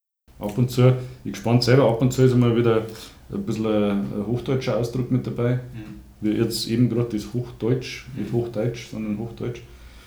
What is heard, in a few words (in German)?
garching Interview